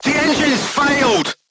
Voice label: voice message engine destroyed, crew tanks portuguese